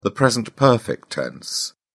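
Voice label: "present perfect", British English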